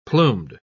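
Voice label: "plumed", British English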